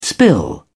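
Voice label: "spill over", British English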